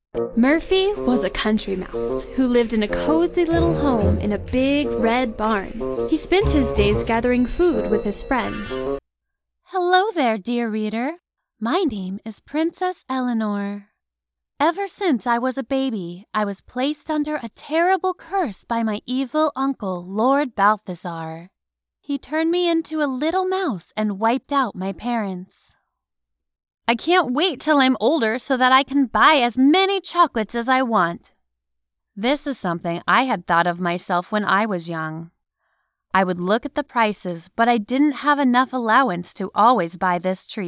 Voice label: Childrens book